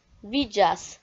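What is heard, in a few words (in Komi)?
"видзас", Komi, female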